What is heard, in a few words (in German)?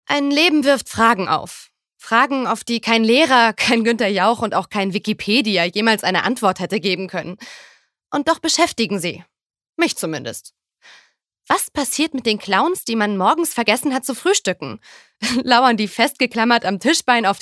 Schauspiel Dynamisch